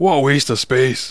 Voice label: boring; Worms Armageddon; Jock